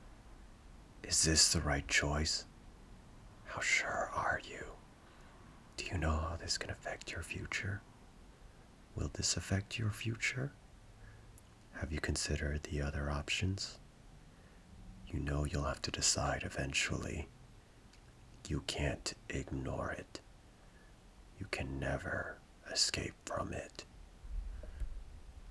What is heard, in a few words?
Uncertain whispers